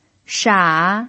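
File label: "sha" Mandarin Chinese, third tone, pinyin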